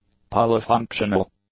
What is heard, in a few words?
"polyfunctional", english; adjectives